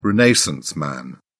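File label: "renaissance man", English, British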